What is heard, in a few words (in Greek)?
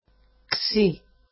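"xi", Greek; ξι; [ksi]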